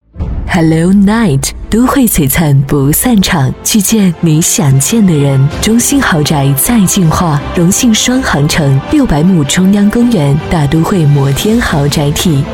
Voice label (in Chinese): 女 融信杭城（品质感）